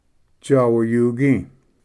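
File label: "sawayugi", Cherokee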